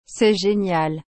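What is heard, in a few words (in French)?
"C'est génial.", French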